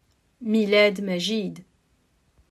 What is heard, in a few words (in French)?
arabe; calendrier avent multilingue